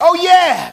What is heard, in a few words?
"oh yeah", voice; gary vee